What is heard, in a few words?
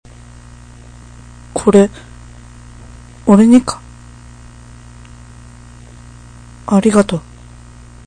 thanks m